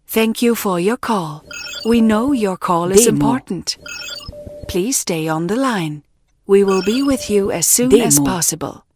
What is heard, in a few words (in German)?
Ansage Englisch Thank you for your call